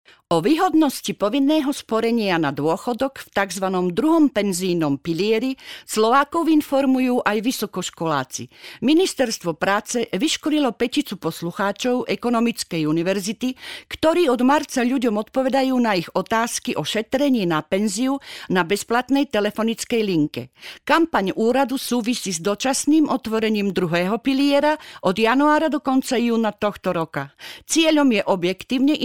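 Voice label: slovak female narration; voice demos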